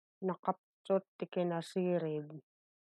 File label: Ilocano